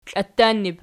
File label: "klatannib", Archi